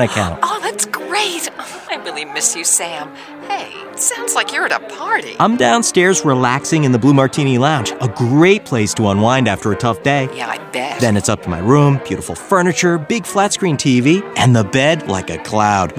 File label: radio holiday inn with 2 awesome vo peeps